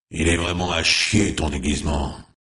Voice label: Hero Lich Pissed; Warcraft 3